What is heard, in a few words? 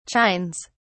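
"chines", English, British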